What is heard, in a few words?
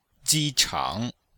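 "ji1chang3", Chinese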